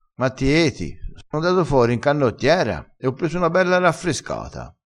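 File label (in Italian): "raffrescata", vocabolario dialettale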